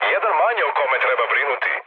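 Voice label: Serbian player killed enemy mood med, speech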